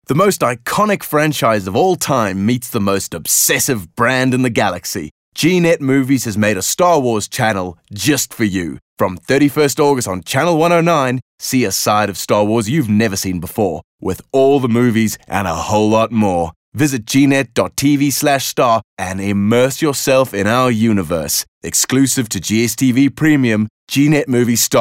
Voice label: English Promo

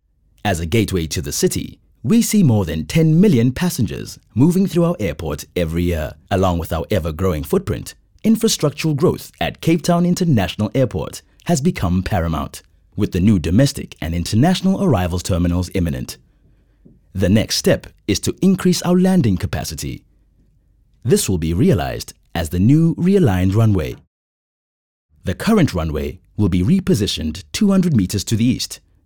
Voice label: English Corporate

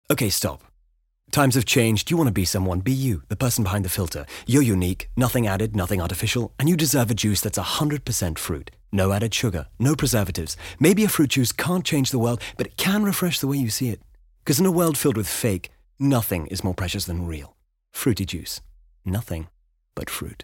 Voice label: English fast sell

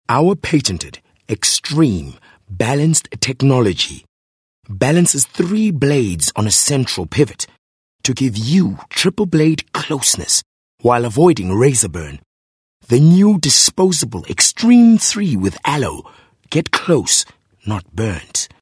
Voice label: English Softsell

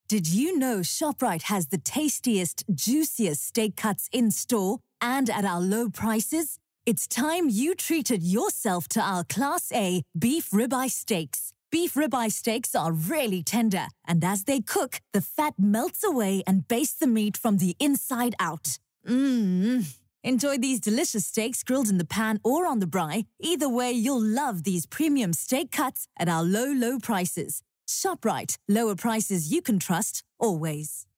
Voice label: English conversational

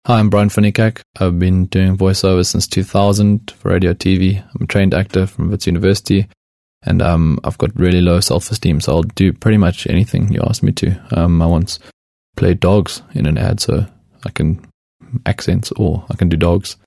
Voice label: English Conversational Introduction

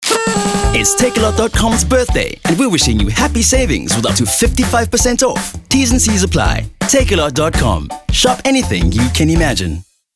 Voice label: Bright

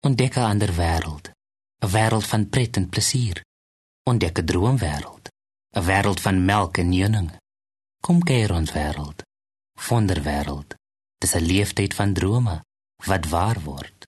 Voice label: Afrikaans Softsell

